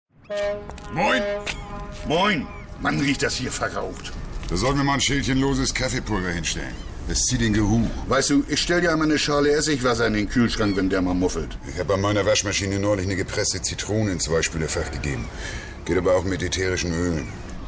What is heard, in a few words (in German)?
szene dialekt